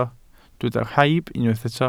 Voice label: "rhaib", welsh

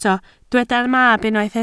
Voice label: "mab", Welsh

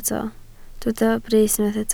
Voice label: "brys", Welsh

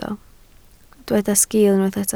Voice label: "sgiil", Welsh

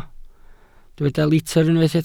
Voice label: "litr", Welsh

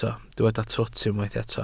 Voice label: "twt", Welsh

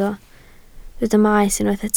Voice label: "maes", Welsh

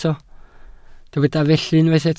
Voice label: "felly", Welsh

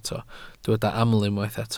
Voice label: "aml", Welsh

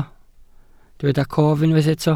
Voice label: "cof", Welsh

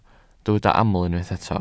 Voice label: "aml", Welsh

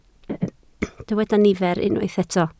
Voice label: "nifer", Welsh

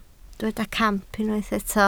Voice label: "camp", Welsh

